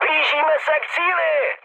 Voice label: near waypoint, speech cze, mood high; War Thunder